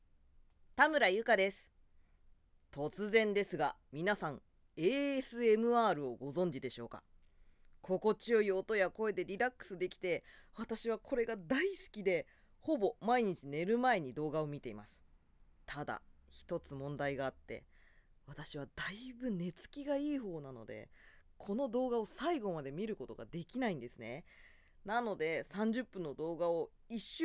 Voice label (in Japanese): フリートーク